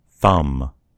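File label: "thumb", American English; gcompris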